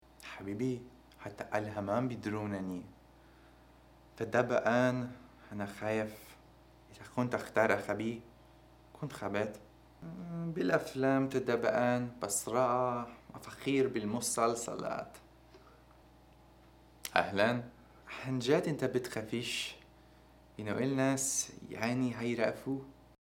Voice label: Arabic Voice